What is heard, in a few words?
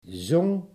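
"zong", Dutch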